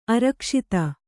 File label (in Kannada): "ಅರಕ್ಷಿತ", kannada